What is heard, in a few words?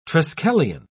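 "triskelion", British English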